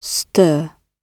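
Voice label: "stir", British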